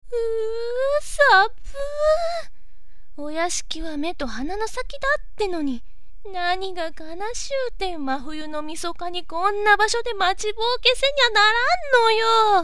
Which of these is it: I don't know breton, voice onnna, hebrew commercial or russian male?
voice onnna